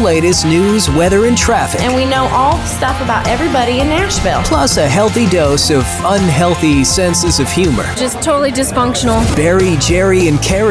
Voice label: country radio imaging samples